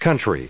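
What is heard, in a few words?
"countries", US English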